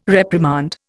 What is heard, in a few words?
"reprimand", Indian